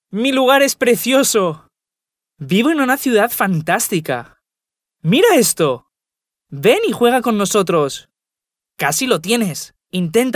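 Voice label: Spanish male children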